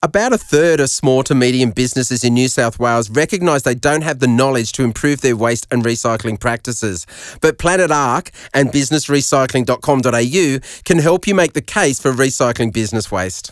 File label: studio grab; business recycling 2017